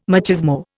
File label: "machismo", Indian